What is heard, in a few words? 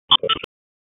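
Police Northwest District Tac, radio; Raleigh; Tac 1; wake; p25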